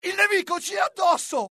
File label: alert, dialogue IT; mohaa; attack